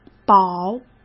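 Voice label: "宝" Mandarin Chinese; chinese-flashcards; hsk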